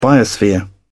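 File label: "biosphere", British English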